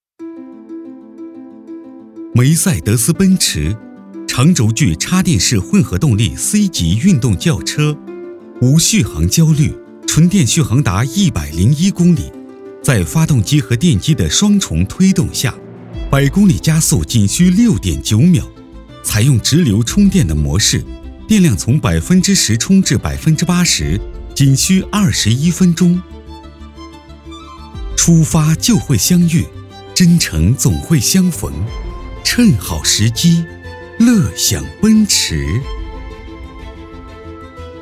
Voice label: 男 宣传片 混动; 奔驰混动

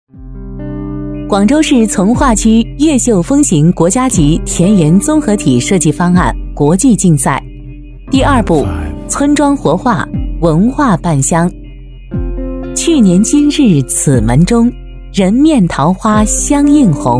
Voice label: 女 项目汇报

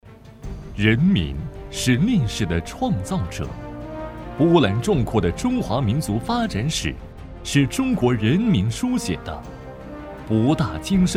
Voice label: 男 汇报

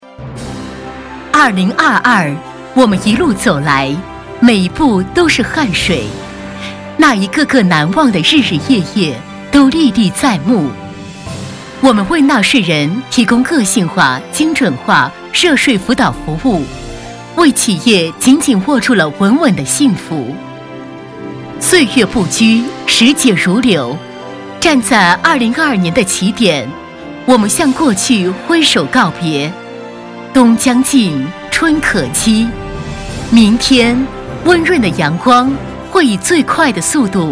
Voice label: 女 大气震撼 税收

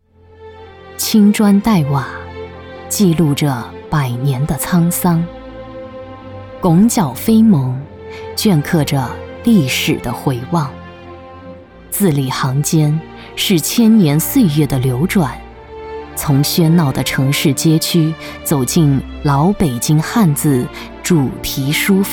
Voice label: 女 宣传片 大气 历史; 大宝国

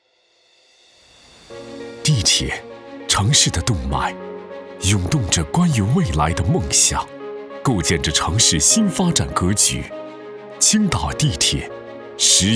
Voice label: 男,大气宣传,地铁; 青岛

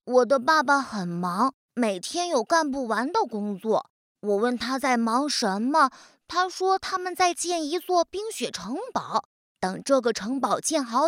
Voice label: 女 男童

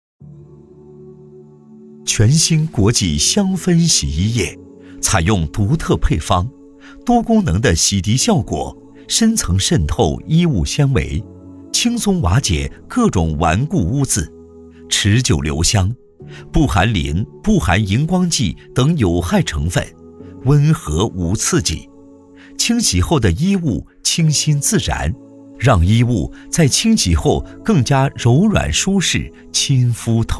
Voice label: 男 品质广告 洗衣液; 贵州苗村掌

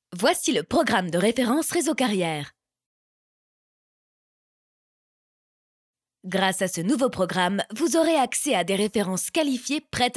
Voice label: 法语女 无线广播

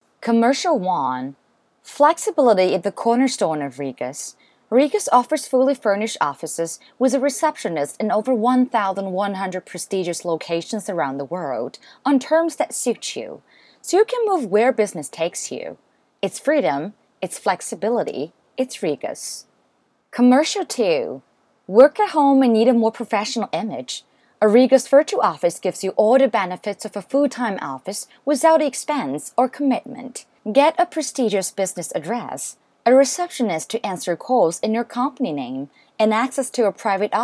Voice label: 英女; regus2

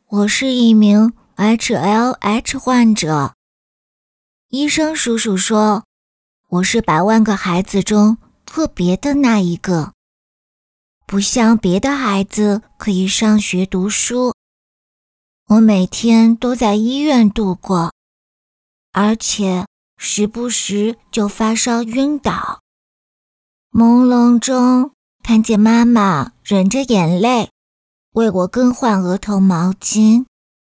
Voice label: 女 童声 儿童公益项目

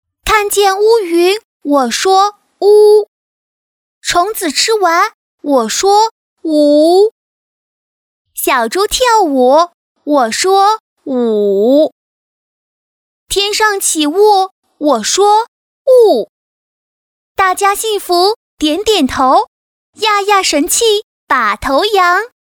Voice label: 女 童声; 黑色乌鸦

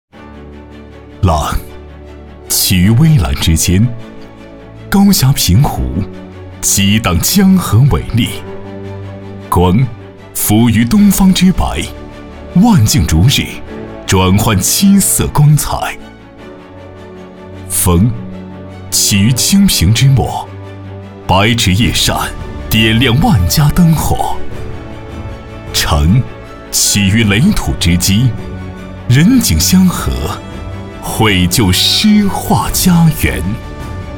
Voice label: 男 国企宣传 积极向上; 筑梦山河

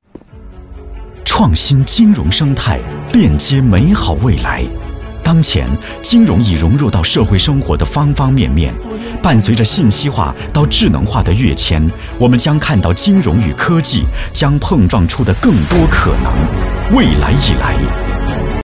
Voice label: 男 中年男科技金融大气

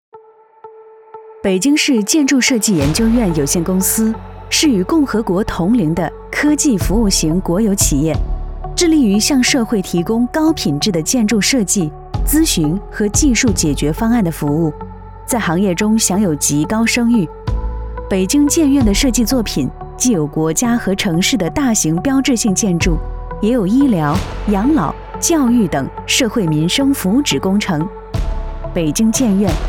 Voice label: 女 专题宣传; 北京建筑设计研究院

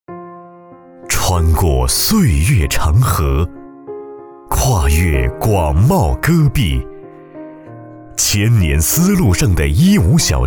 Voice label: 男 大气介绍专题; 工业园区

